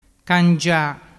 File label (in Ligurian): "cangia", dialettu